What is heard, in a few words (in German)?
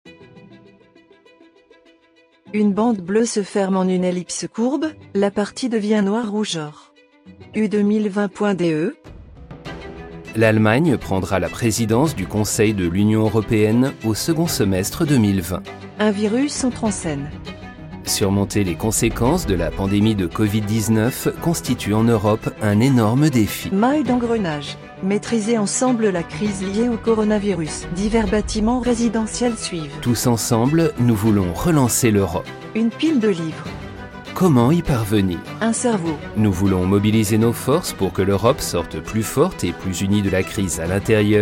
audio audiodeskription fr